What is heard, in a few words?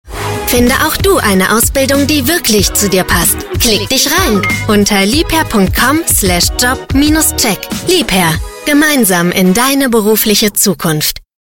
Radio Commercial, German; Werbung I LIEBHERR; voice